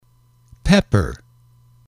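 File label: "pepper", English, male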